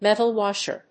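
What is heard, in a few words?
"metal washer", English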